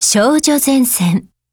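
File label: TITLE CALL Japanese; DSR50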